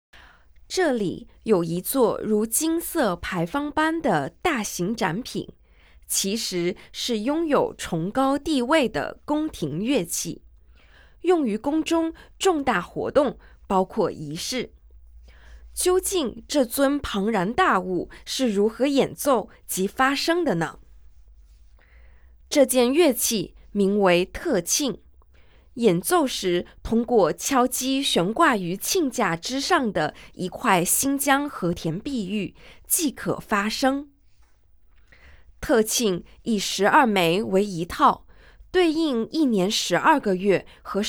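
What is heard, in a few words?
Mandarin, audio guide; architechure collection heritage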